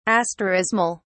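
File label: "asterismal", British English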